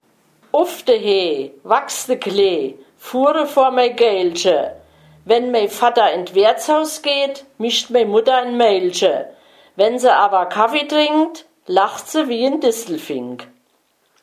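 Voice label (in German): kinderreim, Hunsrück; Uff de Höh wächst de Klee; Unzenberg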